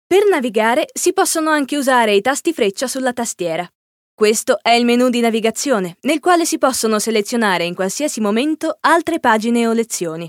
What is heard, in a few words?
it elearning